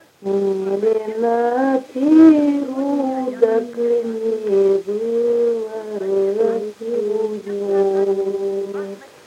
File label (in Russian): Речевой портрет, мезень